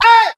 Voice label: "ay", voice